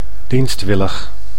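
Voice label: "dienstwillig", Dutch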